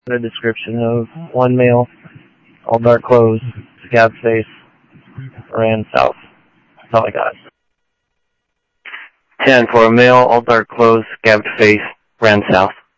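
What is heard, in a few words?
Sheriff East County, scanner audio; South Scottsdale; MCSO - EAST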